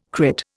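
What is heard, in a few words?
"crit", Indian English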